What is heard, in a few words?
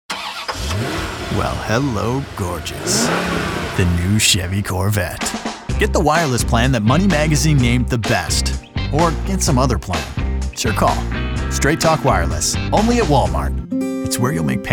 COMMERCIAL